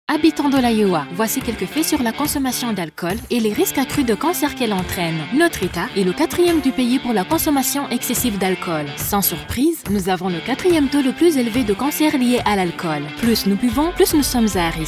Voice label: Female; HHS Alc Misuse Cancer; French; Cancer & Alcohol - Straight Facts